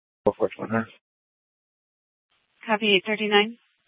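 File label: Police Department Dispatch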